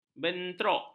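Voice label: "bentrok", Malay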